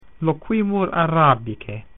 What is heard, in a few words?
latin we speak Arabic